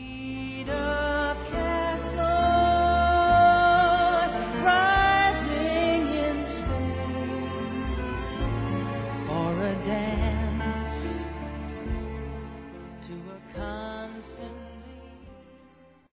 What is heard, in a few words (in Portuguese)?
Bodas de Pérola (30 Anos) Masc, Telemensagens; Bodas e Casamento